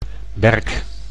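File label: "berk", Dutch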